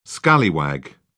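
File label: "scallywag", British English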